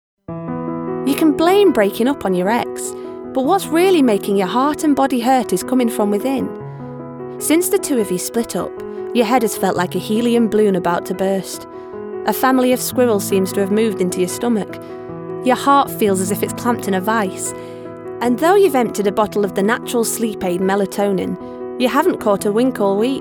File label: Doncaster Narration